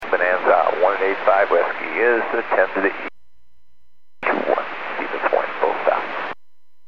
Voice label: Aviation 122.7; KSTE

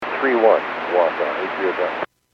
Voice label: Airports 122.7, Radio; KSTE

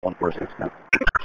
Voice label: Police Sheriff Dispatch

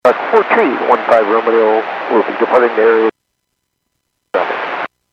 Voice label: Airports 122.7, Radio; KSTE

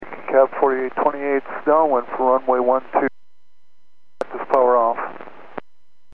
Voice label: Aviation 122.7; KSTE

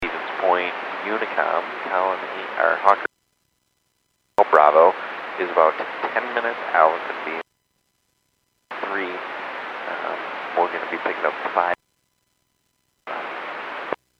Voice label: Airports 122.7, Radio; KSTE

